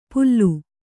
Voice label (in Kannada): "ಪುಲ್ಲು", Kannada